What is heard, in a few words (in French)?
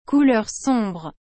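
"couleur sombre", French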